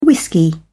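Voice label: "whisky", British English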